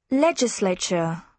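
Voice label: "legislature", British English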